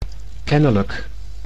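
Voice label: "kennelijk", Dutch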